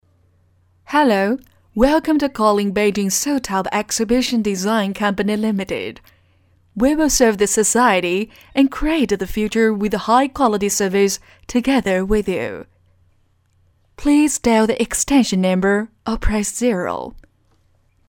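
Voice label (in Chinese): 女英大音-英语彩铃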